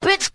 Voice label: "bitch", expletives